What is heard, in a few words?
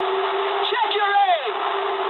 eng player friendly fire mood low, speech eng; War Thunder